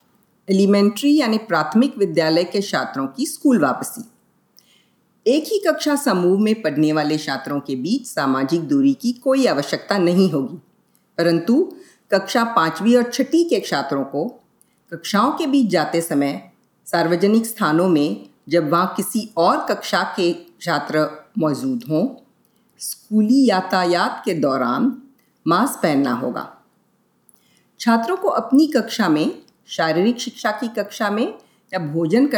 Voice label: Hindi